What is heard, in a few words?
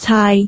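"caai6", Cantonese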